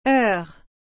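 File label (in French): "heure", French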